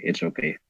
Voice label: merry christmas, bear; sven; santa bear

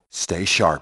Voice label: "all clear", grunt; nimble grunt

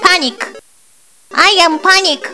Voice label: panic

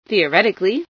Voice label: "theoretically", female

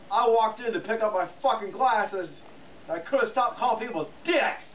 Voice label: Chat

